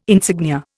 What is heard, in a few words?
"insignia", Indian